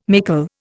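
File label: "mickle", English, Indian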